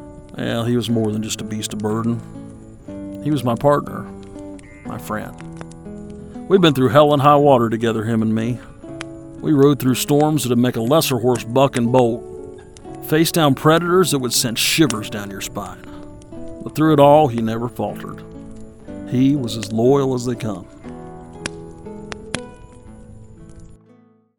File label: Cowboy